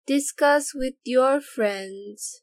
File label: girl; grade1; niyamko palana